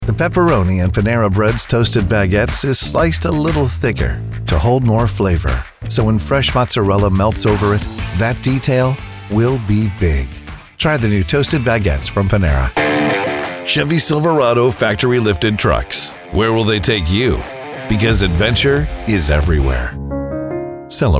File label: Commercial